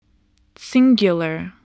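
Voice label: "singular", spoken word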